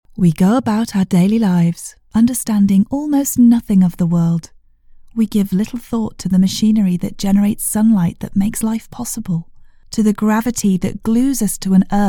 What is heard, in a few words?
Audiobook